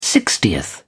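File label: "sixtieth", British English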